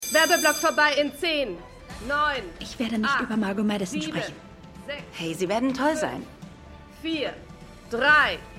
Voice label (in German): Set Aufnahmeleiterin; Glasnost; For All Mankind